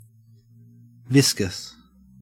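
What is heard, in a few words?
"viscous", Australian English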